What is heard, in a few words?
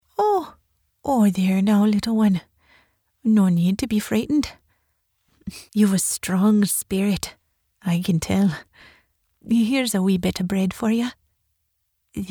Gentle Irish Woman, irish character